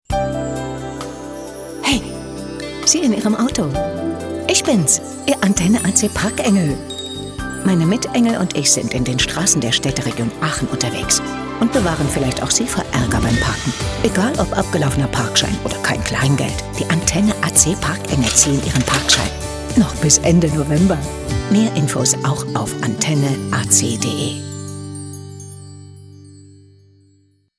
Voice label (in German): Funkwerbung; AC Parkengel